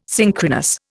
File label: "synchronous", Indian